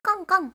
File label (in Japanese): "konkon", voice